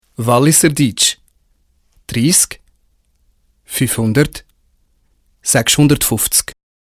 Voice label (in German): walliser